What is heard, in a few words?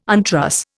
"untruss", Indian